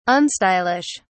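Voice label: "unstylish", British English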